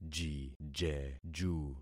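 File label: "jijeju", concatenated syllables; Affixing experiment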